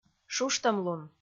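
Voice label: "шуштӧмлун", Komi, female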